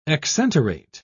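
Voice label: "exenterate", British English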